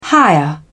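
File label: "higher", English, British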